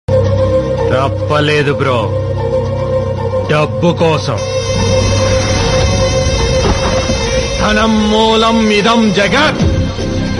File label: attitude dialogue; dhanam moolam idham jagath